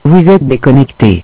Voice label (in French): Deconnecter, Feminin; Echolink